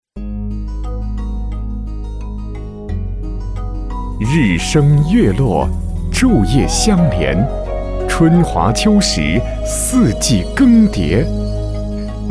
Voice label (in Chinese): 男 广告 柔美缓慢系列; 十二时辰